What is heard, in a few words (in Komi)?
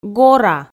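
"гора", Komi-Zyrian, female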